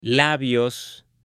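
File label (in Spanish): "labios", male; CUERPO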